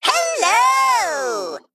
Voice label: Male Mech Well Played; en; AnnoyO

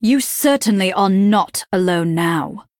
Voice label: Female Nightborne START

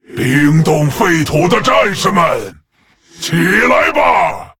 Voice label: Male Lich; TRIGGER Font of Power; zh

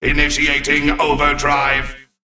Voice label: Male Mech Trigger; en

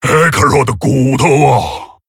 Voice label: Male Tauren WOW, zh

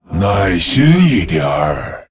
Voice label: Male Lich; TRIGGER Frost Nova; zh

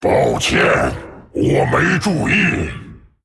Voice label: Male Dragon Sorry; zh

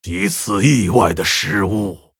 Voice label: Male Orc Ogre Oops; zh

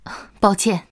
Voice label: Sorry, zh

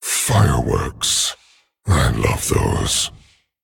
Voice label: Male Dragon, FIRE FESTIVAL; Midsummer Fire Festival; Fireworks. I love those...; en; 风暴巨龙迦拉克隆（英雄）

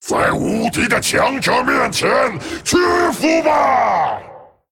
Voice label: Male Dragon Greetings; zh

